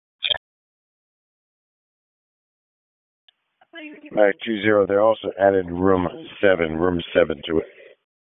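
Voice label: EMS Dispatch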